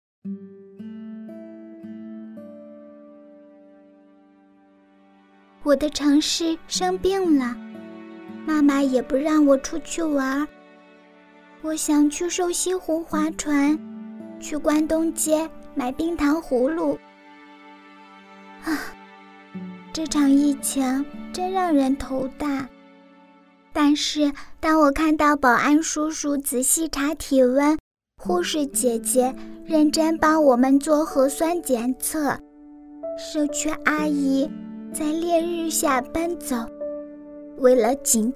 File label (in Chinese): 女 女童声 走心旁白 疫情